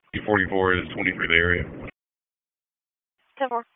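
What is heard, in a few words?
Sheriff's Office Dispatch; WSO DISP 1; wake; p25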